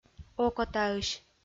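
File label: "окотаысь", Komi, female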